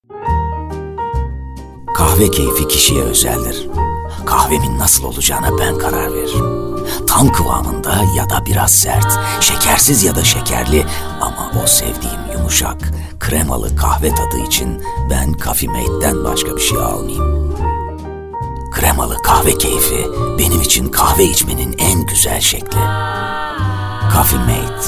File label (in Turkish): kurumsal imaj